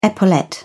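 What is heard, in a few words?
"epaulette", English, British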